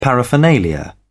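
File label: "paraphernalia", British English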